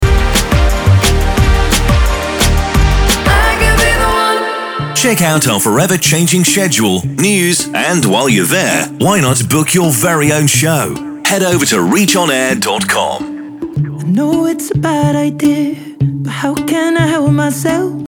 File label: Cold Imaging Over Song Intro